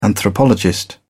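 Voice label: "anthropologist", English, British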